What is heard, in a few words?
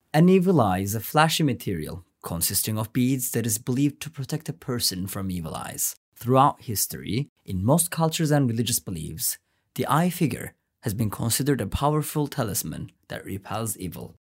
english